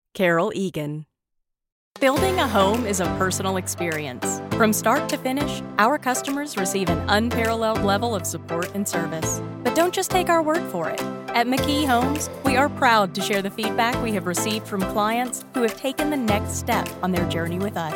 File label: Commercial